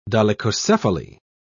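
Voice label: "dolichocephaly", British English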